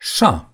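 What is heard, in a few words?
"saddle", Romanian; gcompris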